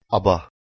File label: "aba", Proto-Germanic